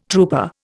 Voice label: "trooper", Indian